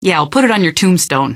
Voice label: answer, female; Garry's Mod; hl2